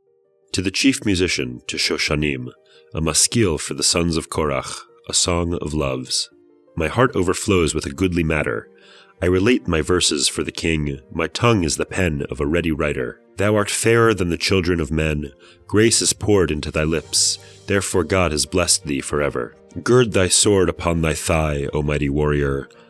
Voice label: poetry and prayer psalm english